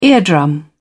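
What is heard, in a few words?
"eardrum", British English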